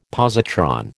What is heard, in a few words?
"positron", American